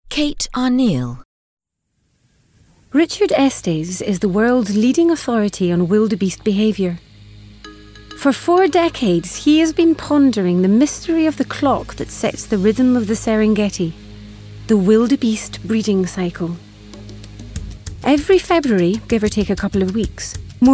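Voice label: narration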